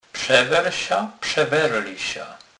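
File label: "przewrzec", Polish, Kurpie dialect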